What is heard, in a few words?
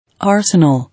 "Arsenal", English